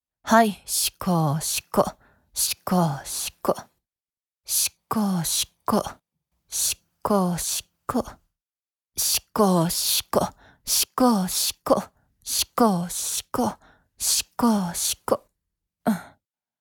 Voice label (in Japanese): 自慰支援(遅):ゆっくりとしこしこの掛け声で自慰を促す; 実用ボイス抜粋集